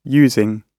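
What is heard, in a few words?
"using", British English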